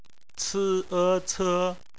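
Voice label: "ce", bopomofo, chinese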